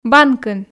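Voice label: "банкын" Komi, female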